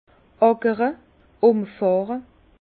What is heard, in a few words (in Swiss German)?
"akre", Bas Rhin; labourer; vin